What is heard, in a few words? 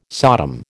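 "Sodom", American English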